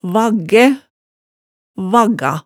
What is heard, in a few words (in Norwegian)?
"vaggæ", hemsedalsmaalet tale